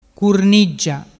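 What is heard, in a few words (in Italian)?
"curniggia", Vernazzese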